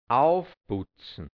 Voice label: "aufputzn", hianzisch; aufputzen; schmücken; reinigen; schelten; schimpfen

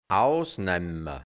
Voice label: "ausne(i)mma", hianzisch Mundart Burgenland; sich viel erlauben; ausnehmen; ausgraben

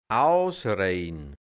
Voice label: "ausre(i)dn", hianzisch Mundart Burgenland; ausreden; tratschen

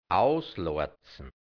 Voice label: "ausloazn", hianzisch Mundart Burgenland; Brotteig zu Laiben formen

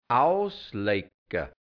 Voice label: "ausle(i)cka", hianzisch Mundart Burgenland; auslecken

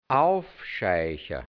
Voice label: "aufscheicha", hianzisch; auftreiben

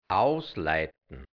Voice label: "ausleitn", hianzisch Mundart Burgenland; ausläuten; (bei Todesfall)

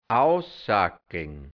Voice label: "aussackln", hianzisch Mundart Burgenland; durchsuchen; Geld abspielen

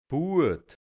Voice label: "Buad", hianzisch Dialekt Burgenland; Bürde; Last; Nachgeburt der Kuh

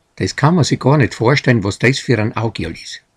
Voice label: "de(i)s kau(nn) ma sih gor nit vorstölln, wos de(i)s fia an Augial is", hianzisch Mundart, Burgenland; unvorstellbar, was der alles anstellt

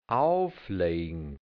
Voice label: "aufle(i)gn", hianzisch; auflegen; aufliegen

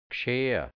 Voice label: "gscheah", Mundart, Burgenland, hianzisch; geschehen

